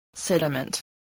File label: "sediment", english spelling